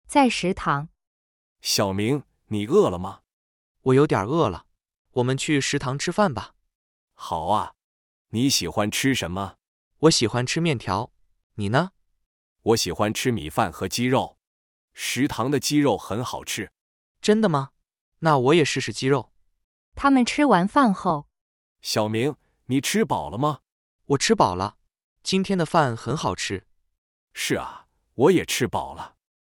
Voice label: Dialogue of Food Mandarin; talking about food in mandarin chinese; 食物; shiwu